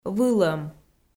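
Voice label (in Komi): "вылӧм", Komi, female; audio dict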